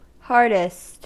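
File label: "hardest", US English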